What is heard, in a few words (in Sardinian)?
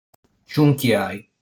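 "Ciunchiai", Sardinian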